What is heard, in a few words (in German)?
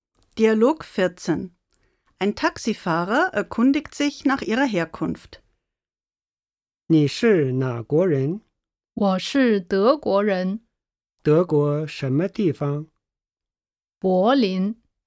Dialog